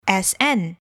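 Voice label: "sn", English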